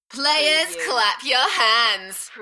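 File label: British female Players clap your hands Enthusiastic; beanstalk audio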